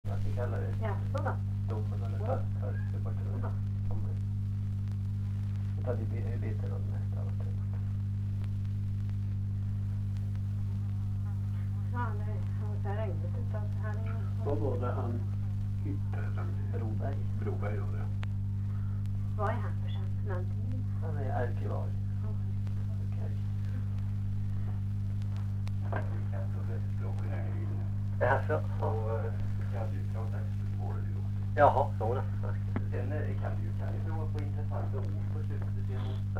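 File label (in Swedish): Lerdal Dalsland, dialekter; Folkminnen; Svenska sagor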